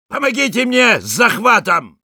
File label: Sniper help me capture ru; «Помогите мне с захватом!»